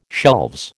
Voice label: "shelves", American